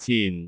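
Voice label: "cin4", Cantonese; googleB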